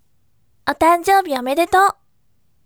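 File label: voice "happy birthday" Japanese, bright; otanjoubi omedetou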